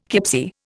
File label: "kipsie", Indian